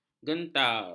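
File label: "gentar", Malay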